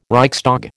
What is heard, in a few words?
"Reichstag", American